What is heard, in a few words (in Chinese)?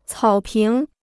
"草坪", Chinese